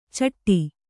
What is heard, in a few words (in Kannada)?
"ಚಿಟ್ಟು", Kannada